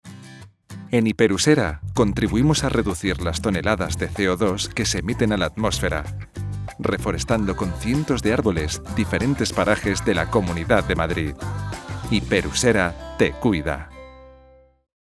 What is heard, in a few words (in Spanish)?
CUÑAS MEDIO AMBIENTE